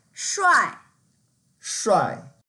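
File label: "shuai4", Mandarin Chinese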